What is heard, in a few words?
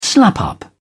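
"slap up", British English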